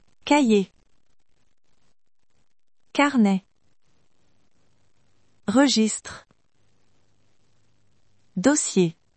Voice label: notebook in french